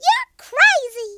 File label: stupid, Southern Belle; speech